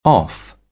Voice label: "off", British; ɑː - ɒ; English